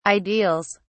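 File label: "ideals", British English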